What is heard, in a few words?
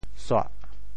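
"suah4", Teochew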